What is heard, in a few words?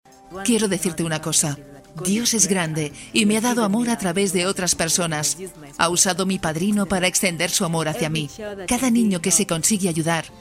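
Spanish, female, narration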